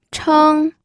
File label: "cheng1", pinyin